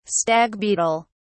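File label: "stag beetle", British English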